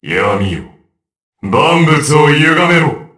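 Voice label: Vox Skill, Japanese; DarkKasel; kingsraid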